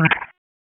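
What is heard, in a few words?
Police Southwest District Tac, radio; Raleigh; wake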